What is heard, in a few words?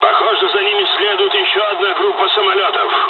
dialogs russian; War Thunder